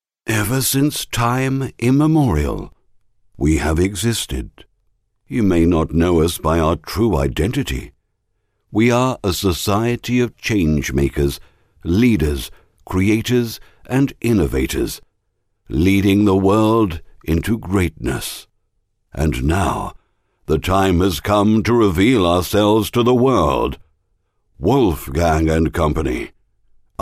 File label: Dramatic Corporate Style